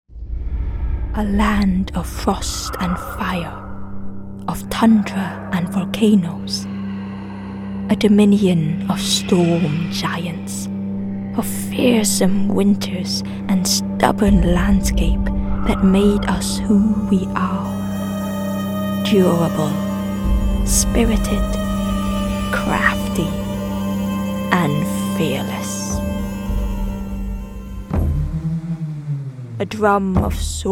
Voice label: english gaming